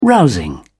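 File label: "rousing", British English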